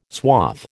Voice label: "swath", American